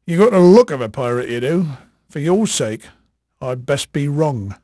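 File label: English male, voice; Pirates Of The Caribbean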